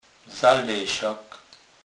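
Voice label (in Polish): "zalesak", Kurpie dialect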